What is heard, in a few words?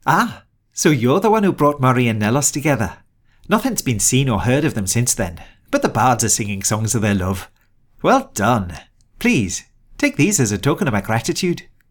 breton, voice auditions